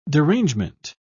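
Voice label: "derangement", English, British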